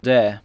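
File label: "dare", English word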